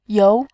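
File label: "you", Mandarin Chinese, tone 2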